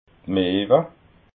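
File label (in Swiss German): "meewa", Alsatian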